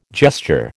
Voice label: "gesture", American